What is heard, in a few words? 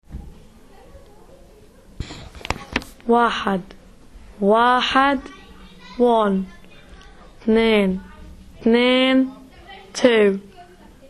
Arabic Numbers 1 10